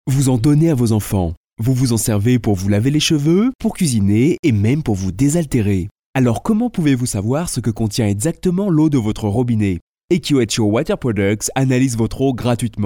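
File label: French, commercial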